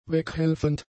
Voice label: "weghelfend", German; adjectives

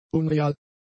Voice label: "unreal", German; adjectives

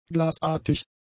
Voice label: "glasartig", German; adjectives

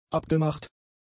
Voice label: "abgemacht", German; adjectives

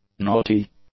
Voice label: "naughty", english; adjectives

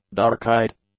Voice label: "dark-eyed", English; adjectives

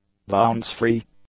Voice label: "bounce-free", English; adjectives

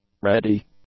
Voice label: "ready", English; adjectives

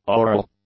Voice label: "aural", English; adjectives

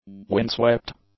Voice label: "windswept", english; adjectives

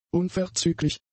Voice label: "unverzüglich", German; adjectives

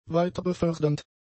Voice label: "weiterbefördernd", German; adjectives

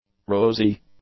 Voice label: "rosy", English; adjectives

